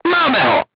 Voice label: voice message target destroyed, crew tanks czech